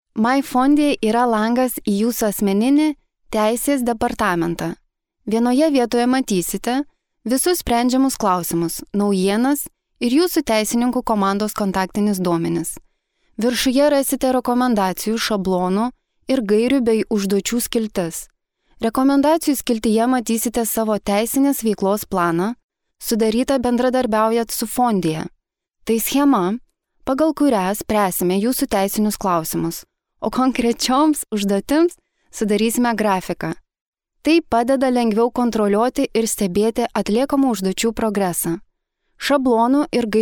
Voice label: female lithuanian voice over narrative

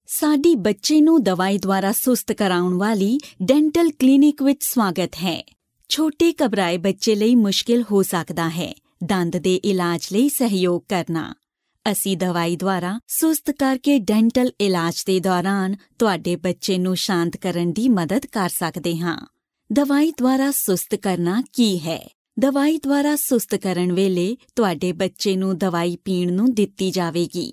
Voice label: female punjabi voice over narrative

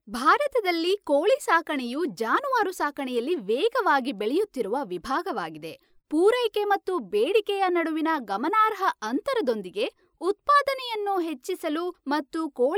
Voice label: female kannada voice over narrative

